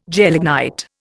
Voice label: "gelignite", Indian

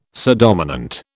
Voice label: "subdominant", British

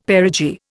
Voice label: "perigee", Indian; Dictionary

